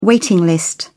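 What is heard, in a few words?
"waiting list", British English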